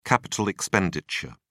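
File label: "capital expenditure", British English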